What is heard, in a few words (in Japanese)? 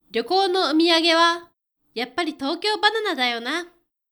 dansei, ryokounoomiyagehayapparitoukyoubananadayona